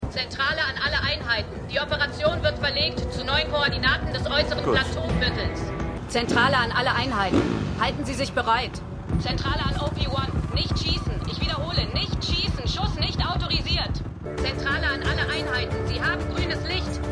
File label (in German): Disponentin; Suspicion